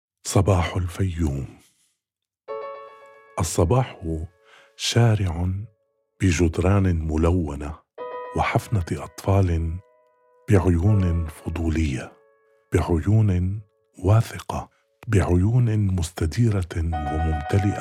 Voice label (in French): arabe; Matin Fayoum